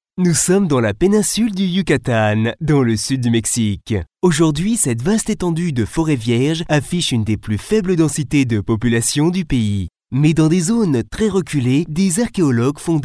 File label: french male documentary